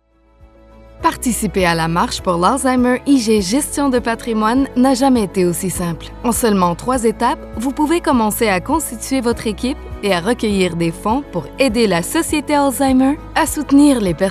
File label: Explainer French Canadian